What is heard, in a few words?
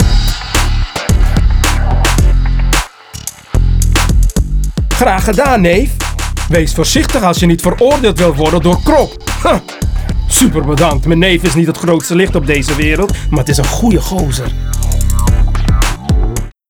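outro victory Dutch; arthur and the revenge of maltazard; Wii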